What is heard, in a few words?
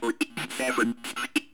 comm voice; Ambient Audio; ogxbox